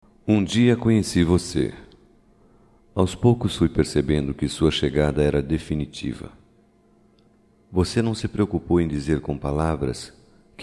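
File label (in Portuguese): AGRADECIMENTO NEUTRO, Só Voz, masculino; Telemensagens; Telemensagens 2017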